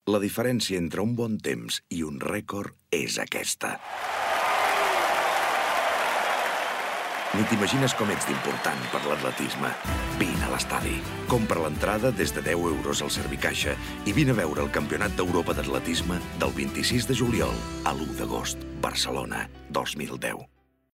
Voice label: male catalan athletics comercial; ideasonora